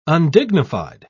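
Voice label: "undignified", British English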